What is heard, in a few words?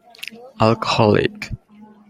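"alcoholic", Japanese, male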